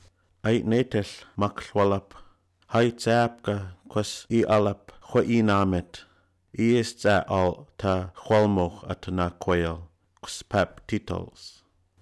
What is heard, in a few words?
welcome speech